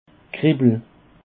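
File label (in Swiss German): "kreppel", Alsatian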